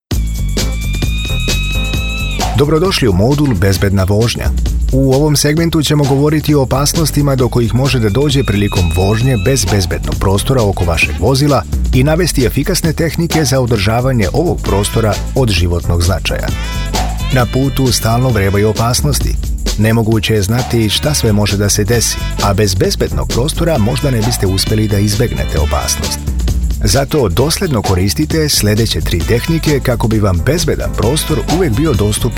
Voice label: Serbian Narration Male Voice Over